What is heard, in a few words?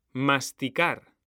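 "masticar", Spanish, male; mekanta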